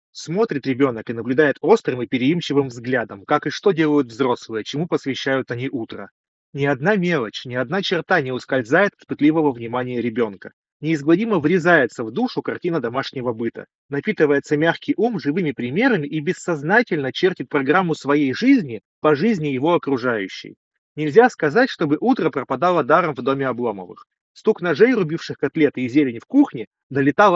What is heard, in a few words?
Mic; realme buds air 8 pro